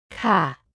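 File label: "kha", Thai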